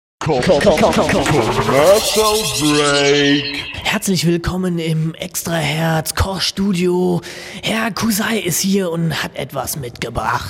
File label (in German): Werbung; extrahertz